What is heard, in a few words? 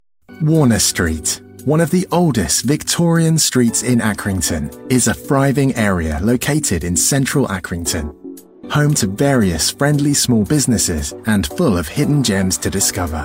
Factory promotio